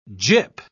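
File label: "gip", English, British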